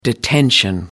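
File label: "detention", English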